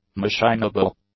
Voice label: "machinable", English